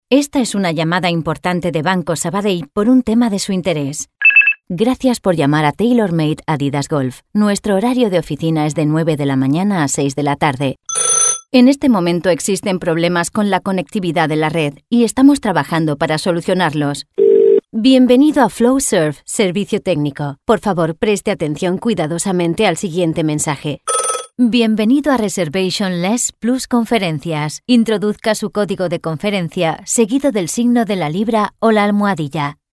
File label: ivr informative